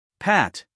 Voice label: "pat", US male